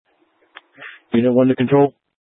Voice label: Public Transit System Light Rail Security, scanner; Regional Wireless Cooperative (RWC); Valley Metro; Thompson Peak High